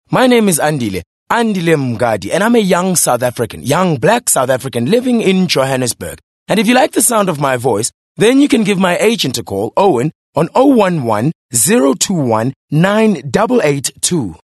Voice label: English Conversational

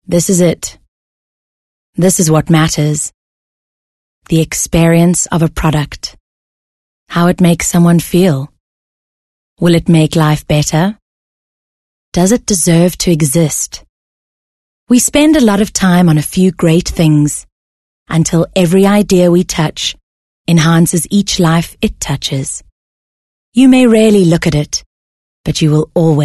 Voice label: English corporate

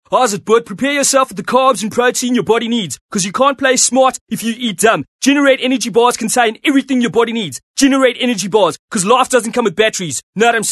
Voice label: English Character

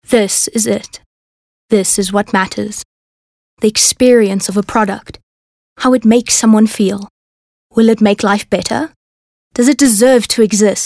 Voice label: English Softsell

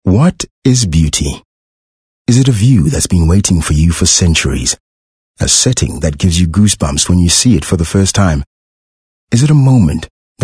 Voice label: English softsell narrator

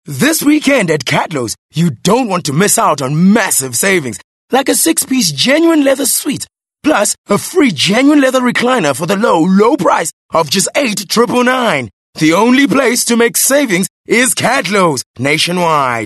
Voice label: English Hardsell

